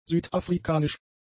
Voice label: "südafrikanisch", german; adjectives